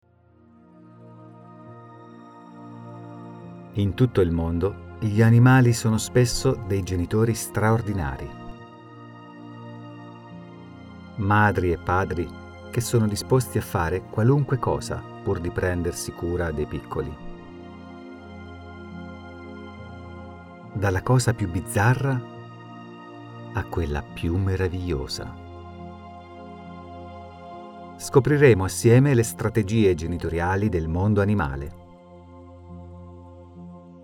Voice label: IT, Documentary